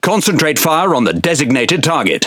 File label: Pirate Speech Quick Commands Enemy Ship; World Of Warships